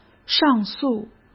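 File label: "上诉", Mandarin Chinese; chinese flashcards; hsk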